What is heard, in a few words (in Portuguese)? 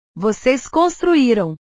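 "vocês construíram", Portuguese; portugues/verbos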